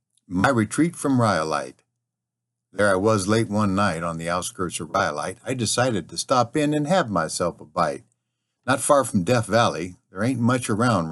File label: poem; rhyolite